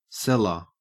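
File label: "cellar", Australian English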